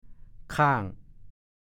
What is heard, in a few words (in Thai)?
"ค่าง", ภาษาโคราช; วางบนเตา (ใช้แก่ภาชนะ)